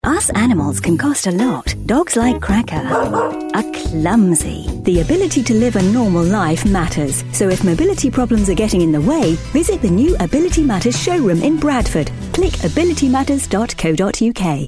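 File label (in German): English UK Freundlich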